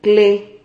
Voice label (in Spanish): "cle", Silabas